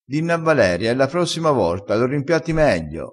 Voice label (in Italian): "rimpiatta", crespinese